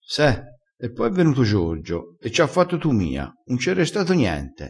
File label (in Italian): "fa tumia", vocabolario dialettale